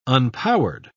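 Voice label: "unpowered", British English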